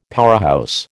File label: "powerhouse", American